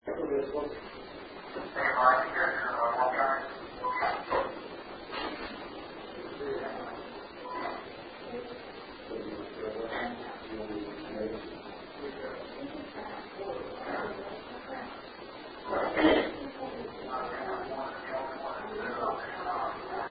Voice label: Unexplained Female voice; Case 028 Molly Tynes House; Master Bed Room